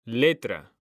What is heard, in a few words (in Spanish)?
letra hombre; mekanta